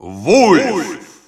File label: Russian Announcer; Wolf; SSBU